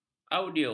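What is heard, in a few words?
"audio", Malay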